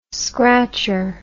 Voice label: "scratcher", English